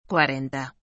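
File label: "cuarenta", Spanish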